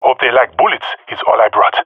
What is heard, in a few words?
attacking, jungle male; agents; jungle male epic